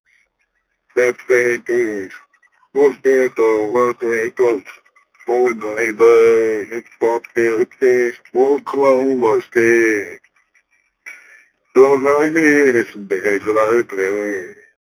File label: punjabi voice